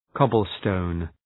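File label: "cobblestone", English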